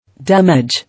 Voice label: "Damage", English